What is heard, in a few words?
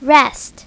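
"rest", English; My Talking Dictionary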